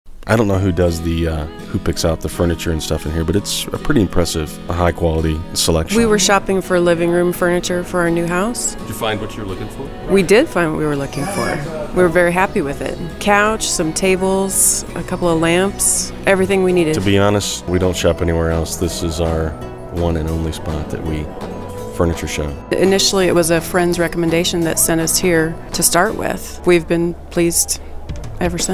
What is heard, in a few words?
Testimonial